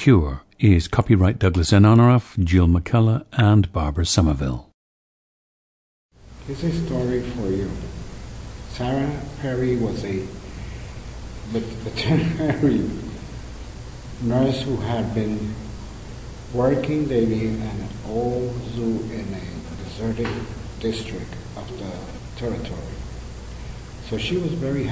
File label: puerto rico